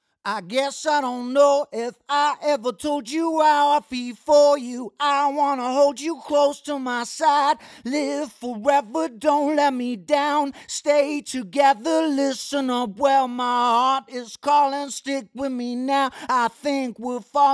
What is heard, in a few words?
pure tube male vox